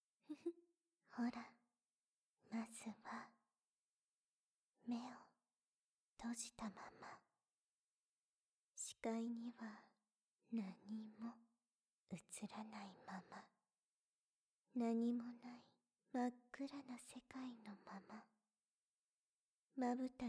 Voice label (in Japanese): 催眠導入 リラックスして♪